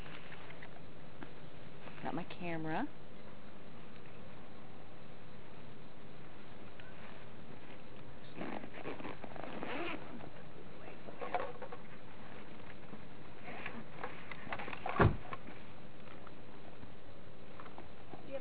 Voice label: male voice